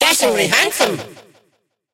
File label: evil atk vo; mortis